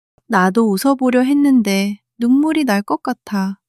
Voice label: crying; monologue